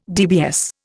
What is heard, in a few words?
"DBS", Indian